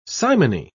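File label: "simony", British English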